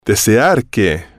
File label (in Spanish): desear que, Spanish